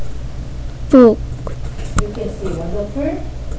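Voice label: "book", spoken word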